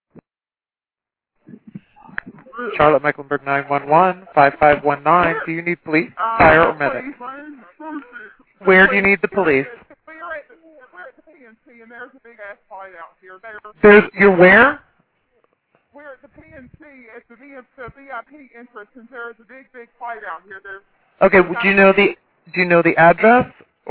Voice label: 911 call; PNC Pavilion